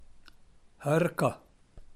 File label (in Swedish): "hôrkâ", daladialekt; Uttal; gagnsmal